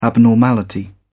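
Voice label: "abnormality", British English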